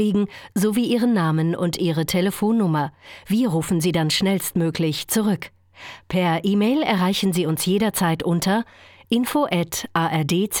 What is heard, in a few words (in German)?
fernsehwerbung